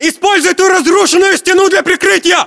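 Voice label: order cover wall broken, battlechatter, Russian; localized english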